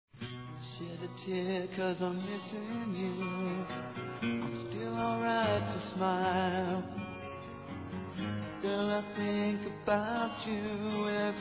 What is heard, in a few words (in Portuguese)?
ANIV. ROMANTICO M; Aniversários; masc